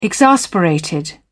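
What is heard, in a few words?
"exasperated", British English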